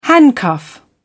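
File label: "handcuff", British English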